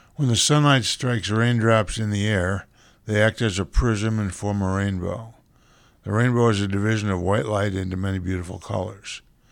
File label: Glottic cancer after voice